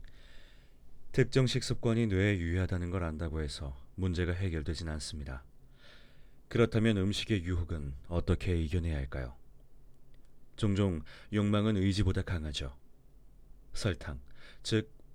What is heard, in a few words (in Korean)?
나레이션 기름진